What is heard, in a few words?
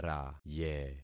"raye", concat syllables; Affixing experiment